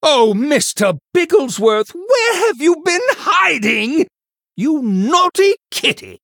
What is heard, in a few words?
Male Human; Mr Bigglesworth